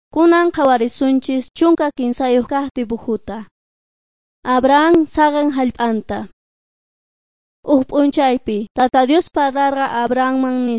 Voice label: beginning with god quechua s bolivian cochabamba